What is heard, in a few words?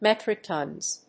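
"metric tons", English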